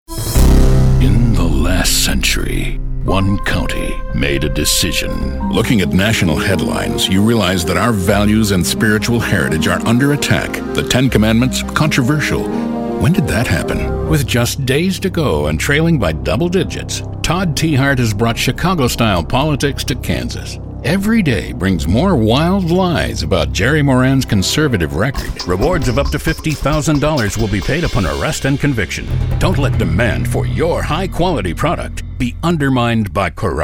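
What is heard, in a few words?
Political voice overs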